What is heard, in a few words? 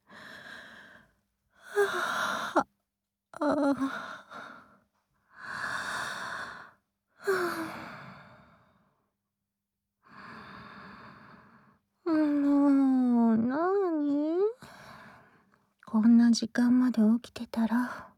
Whisper,ASMR